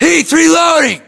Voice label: inform reloading, US